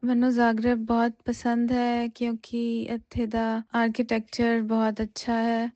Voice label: punjabi; POP UP Zagreb